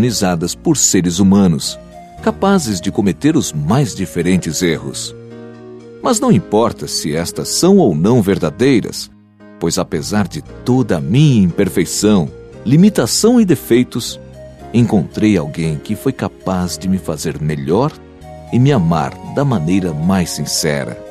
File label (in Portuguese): Namorados pra Amor Vencedor M